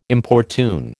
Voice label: "importune", American